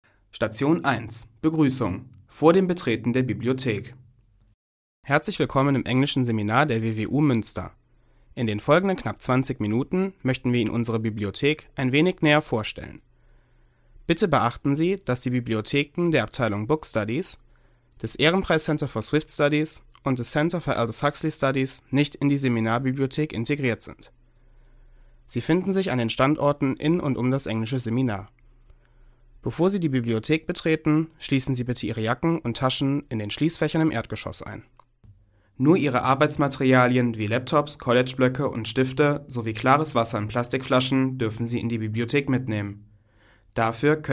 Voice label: audioguide deutsch; bibliothek